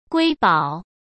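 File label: "瑰宝", Mandarin Chinese; hsk; chinese-flashcards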